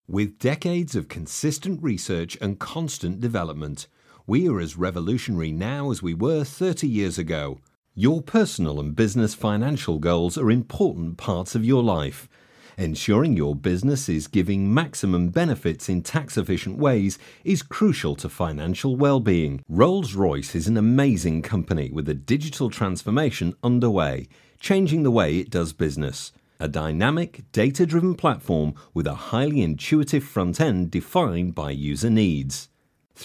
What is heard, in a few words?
CORPORATE Voice Over